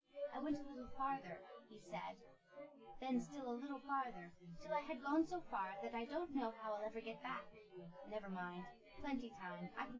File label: female, synth conv, 130ms, 5cm; SiSEC10; underdetermined